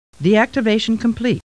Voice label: Star Trek Computer Voice; StarTrekLCAR